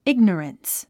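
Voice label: "ignorance", English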